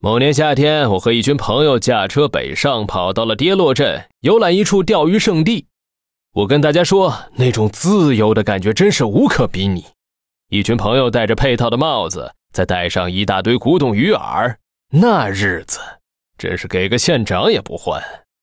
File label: Mandarin; 瑞奇（双点校园）; 开场白